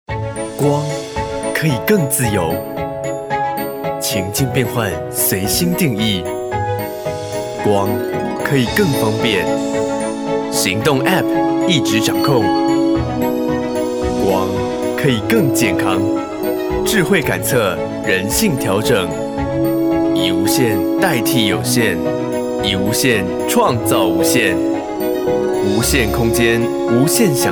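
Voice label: Taiwan, male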